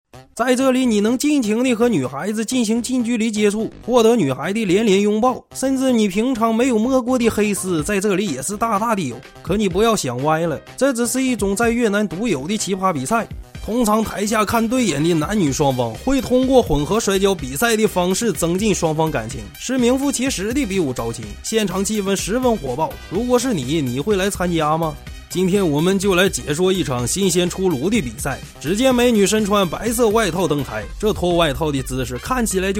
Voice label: 辽普方言娱乐

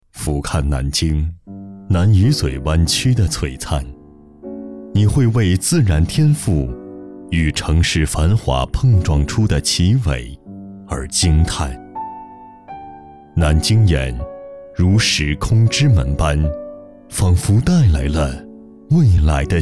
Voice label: 红酒广告

